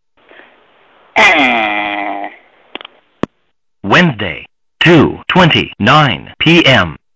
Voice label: Ehhhhh, answering machine messages